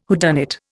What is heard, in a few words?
"whodunit", Indian